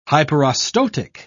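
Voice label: "hyperostotic", British English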